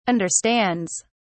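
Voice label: "understands", English, British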